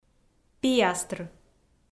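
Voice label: "пиастр", Komi, female; audio dict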